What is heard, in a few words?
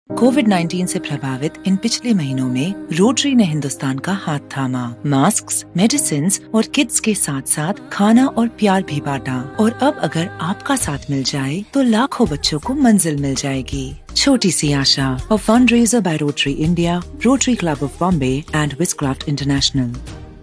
Female Hindi